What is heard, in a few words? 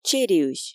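"чериысь" Komi, female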